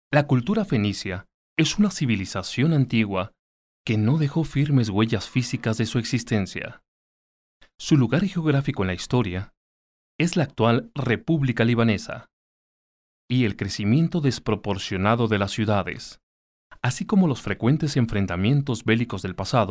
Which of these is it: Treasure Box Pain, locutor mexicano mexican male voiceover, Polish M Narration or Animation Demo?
locutor mexicano mexican male voiceover